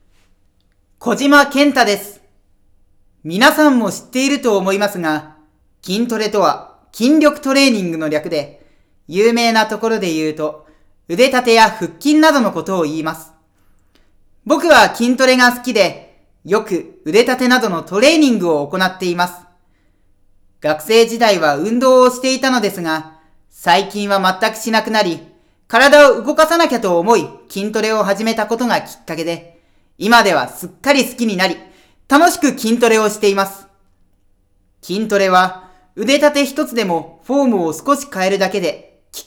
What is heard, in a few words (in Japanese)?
フリートーク